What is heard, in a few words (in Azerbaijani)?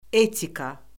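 "etika", Azerbaijani; Orfoqrafiya Lüğəti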